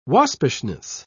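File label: "waspishness", British English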